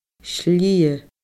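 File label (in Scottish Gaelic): "slighe", Scottish Gaelic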